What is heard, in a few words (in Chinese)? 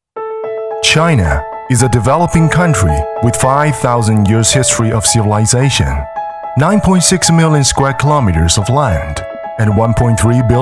男英 专题 企业 企业专题 沉稳; 英语